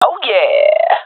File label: cheer, swat; vo; agents; Source Model Packs; Counter Strike Model Pack; CS2; swat epic